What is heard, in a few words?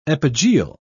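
"epigeal", British English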